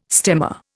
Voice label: "stemma", Indian